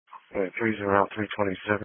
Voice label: EMS Dispatch